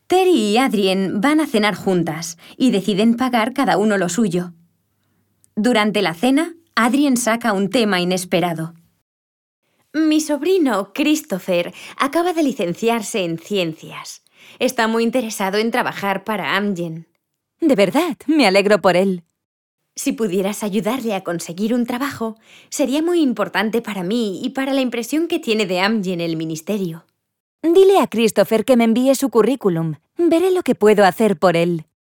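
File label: Spanish, Spain, e-learning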